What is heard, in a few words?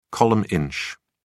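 "column inch", British English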